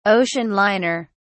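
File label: "ocean liner", British English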